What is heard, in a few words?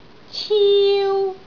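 "ciu1", Cantonese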